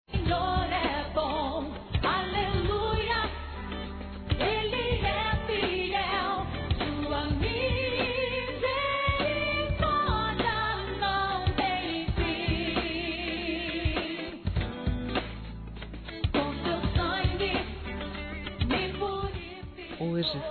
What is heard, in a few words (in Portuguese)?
natal e ano novo para amigo f; Telemensagens; fem; Misto